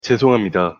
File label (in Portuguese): pronuncia coreano perdao